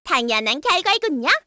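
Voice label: Vox Victory, Korean; Hanus; kingsraid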